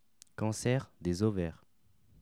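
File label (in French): "cancer des ovaires", French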